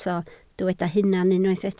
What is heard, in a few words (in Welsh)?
"hunan" Welsh